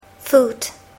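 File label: "food", English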